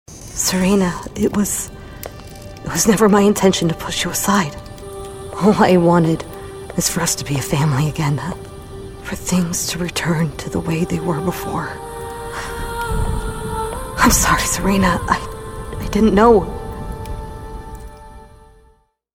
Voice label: Regretful Mother, video-game